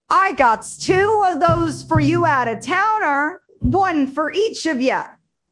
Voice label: happy, speech; fertig classic games